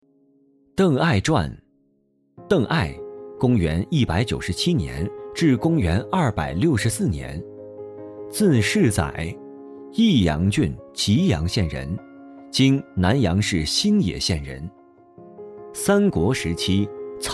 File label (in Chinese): 男 有声读物 青少年历史类